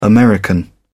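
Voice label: "american", English, British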